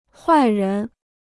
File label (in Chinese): "坏人", Chinese